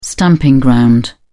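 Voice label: "stamping ground", British English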